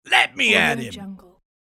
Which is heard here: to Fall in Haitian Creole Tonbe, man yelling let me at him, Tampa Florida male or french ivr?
man yelling let me at him